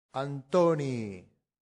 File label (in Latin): "antonii", Latin; santillana